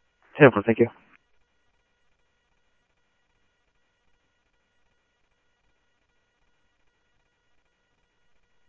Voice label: scanner audio trunk